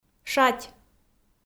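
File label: "шать", Komi, female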